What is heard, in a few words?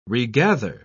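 "regather", British English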